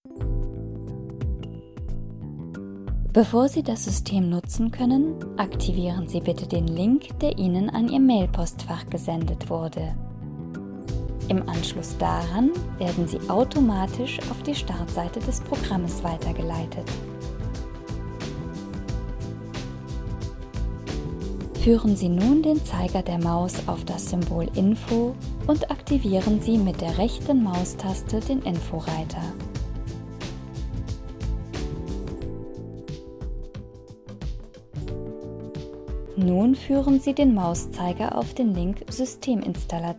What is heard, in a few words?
E-Learning